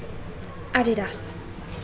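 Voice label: "Adidas", British English, female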